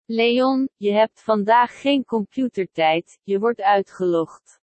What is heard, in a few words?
speech sample nl